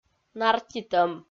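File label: "нартитӧм", Komi, female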